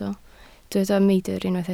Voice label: "meidr", welsh